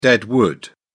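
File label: "dead wood", en, British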